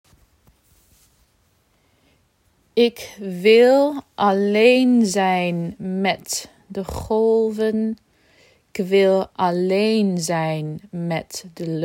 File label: dutch song pronunciation second verse